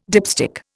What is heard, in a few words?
"dipstick", Indian